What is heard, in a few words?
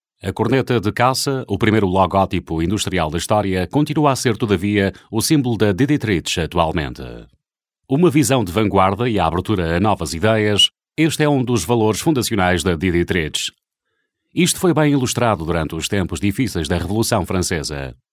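Portuguese corporate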